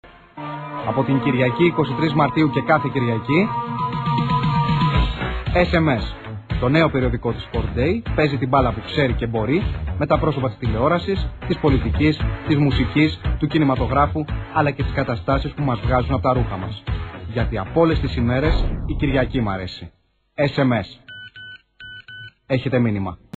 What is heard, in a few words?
sms spot